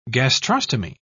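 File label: "gastrostomy", British English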